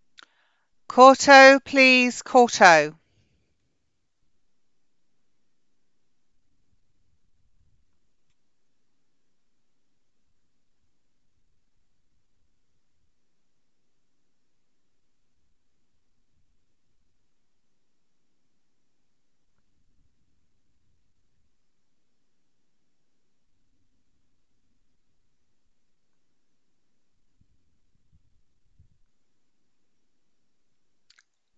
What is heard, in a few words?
Voice over for horsemanship class